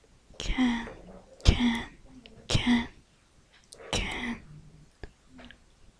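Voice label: "can", spoken word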